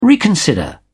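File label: "reconsider", British English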